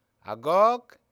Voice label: "eh", Dinka

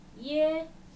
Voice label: "ye", Dinka

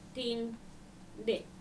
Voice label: "tiŋde", Dinka

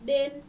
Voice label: "den", Dinka

